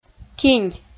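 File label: "кинь", Komi, female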